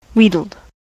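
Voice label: "wheedled", US English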